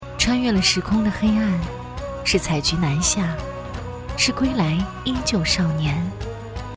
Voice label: 女 微电影 自然说话; 采菊南山

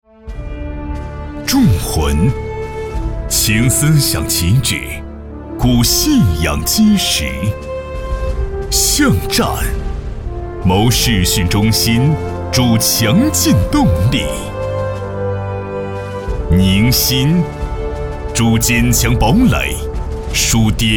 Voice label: 男 军队党政 铸魂 大气澎湃

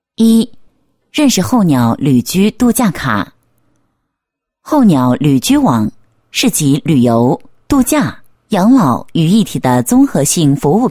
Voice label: 女, 旅游品牌宣传; 候鸟旅居网宣传配音

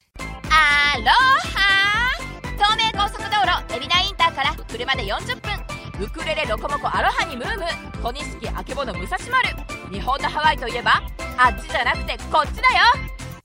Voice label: 激情-广告

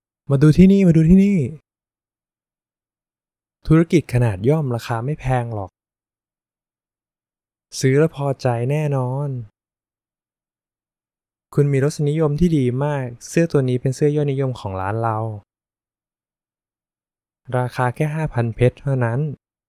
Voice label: 泰语 男声 角色

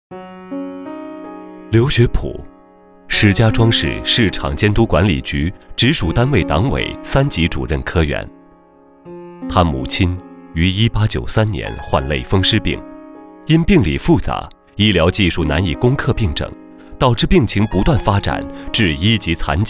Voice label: 男 独白

